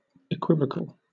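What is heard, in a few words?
"equivocal", British English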